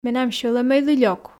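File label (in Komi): менам сьӧлӧмӧйлы лёк, Komi, female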